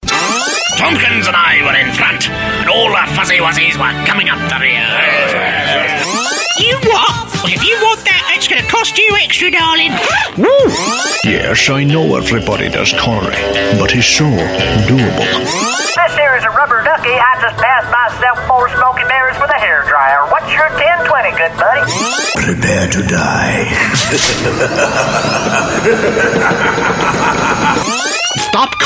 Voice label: AU English Character